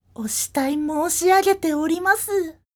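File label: female; お慕い申し上げております; クール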